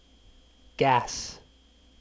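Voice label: "gas", English syllable; kdeedu; klettres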